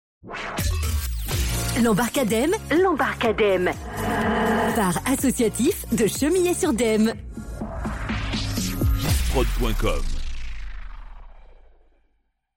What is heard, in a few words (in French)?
Jingle Bar; L'Embarcademe